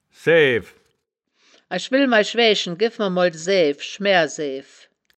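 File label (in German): "saeaef", wittlicher woerterbuch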